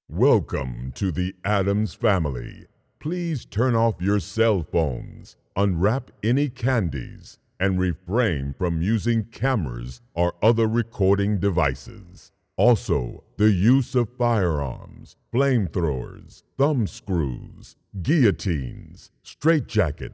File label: OPENING ANNOUNCEMENT; Addams